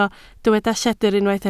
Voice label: "lledr", Welsh

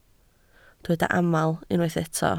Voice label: "aml", Welsh

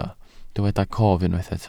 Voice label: "cof", Welsh

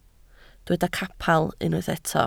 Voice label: "capel", Welsh

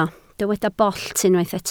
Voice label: "bollt", Welsh

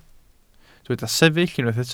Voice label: "sefyll", welsh

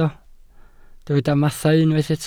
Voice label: "mathau", Welsh

